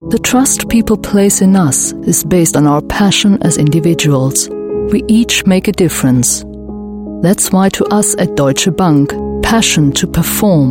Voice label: German Female English

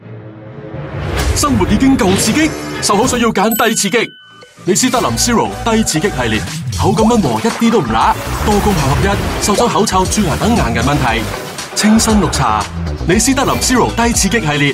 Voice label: Cantonese; 李施德林

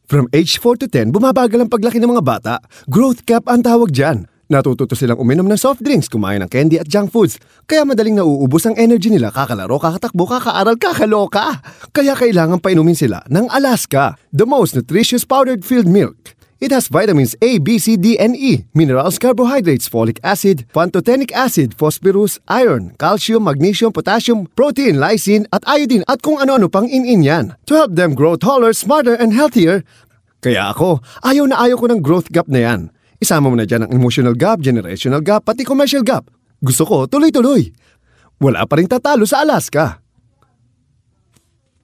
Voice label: Tagalog Male Voice Samples

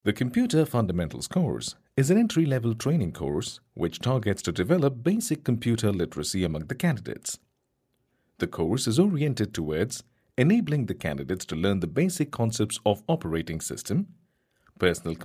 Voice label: Indian English eLearning

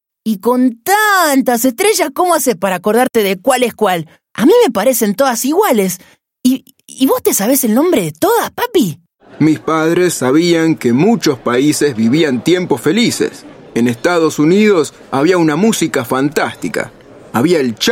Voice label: Argentinian Spanish Characters

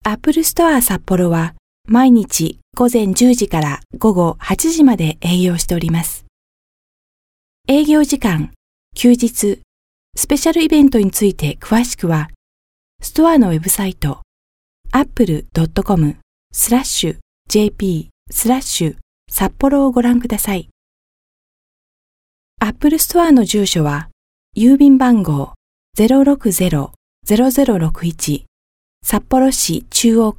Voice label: Japanese VO Only F

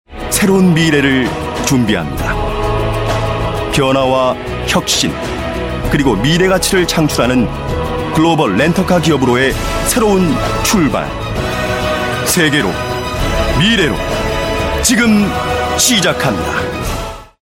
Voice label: Korean Male Dynamic Read

